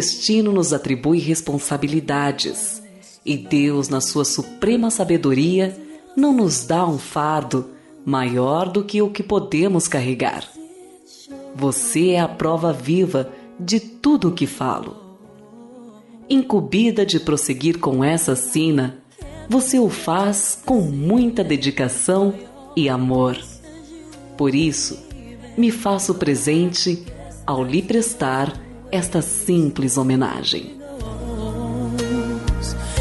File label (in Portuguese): fem, Dia dos Pais para Mãe que é Pai – Voz Feminina